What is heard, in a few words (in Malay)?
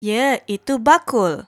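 "yaitu bakul", Malay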